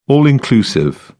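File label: "all-inclusive", English, British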